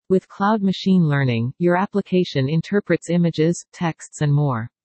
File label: en-US Standard C